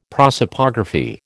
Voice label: "prosopography", American